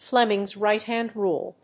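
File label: "Flemings right-hand rule", English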